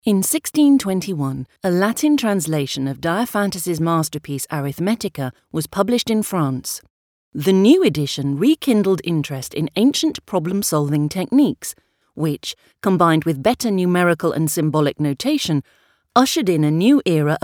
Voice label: uk audiobook